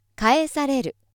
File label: female